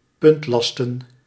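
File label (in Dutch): "puntlasten", Dutch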